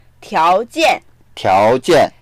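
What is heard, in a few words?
"tiao2jian4", Mandarin Chinese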